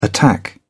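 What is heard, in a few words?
"attack", English, British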